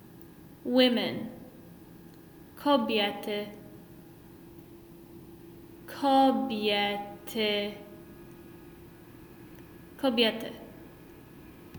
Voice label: Pol women

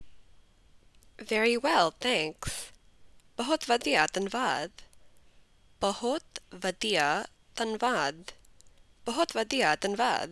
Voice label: Punjabi Very well thanks

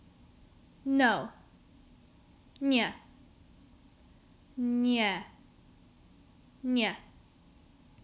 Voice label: Pol No

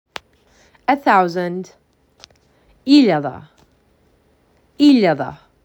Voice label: MAC 6, macedonian phrasebook

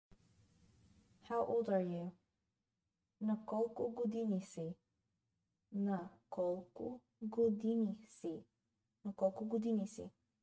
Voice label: BGN How old are you, Bulgarian; the talking bulgarian phrasebook

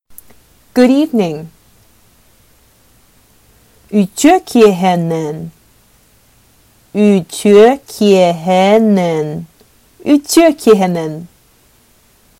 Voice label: Sakha Good evening

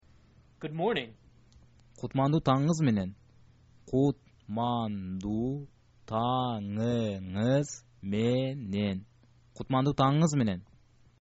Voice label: good morning, kyrgyz

